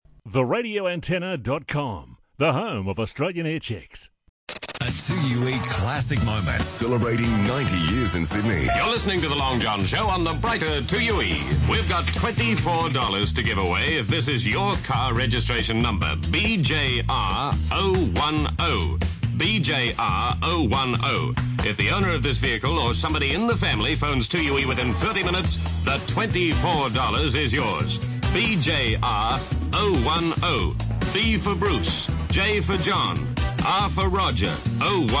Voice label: STICKER PROMO; 2UE

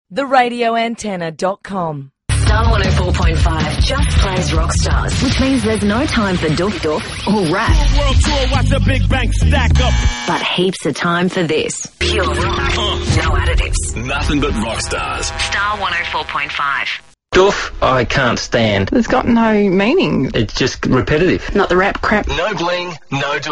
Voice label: Aircheck Rock sweepers; Star 104.5; 2006

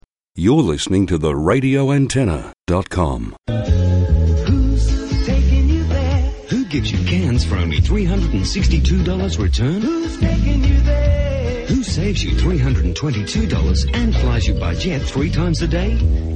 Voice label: Classic Aussie commercials; Aircheck; East West

